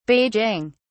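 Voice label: "beijing", British English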